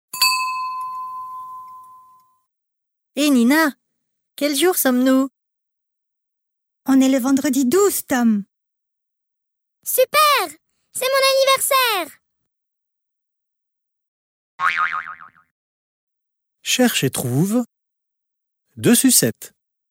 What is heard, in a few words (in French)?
Joyeux anniversaire Dialogue; Cherche et Trouve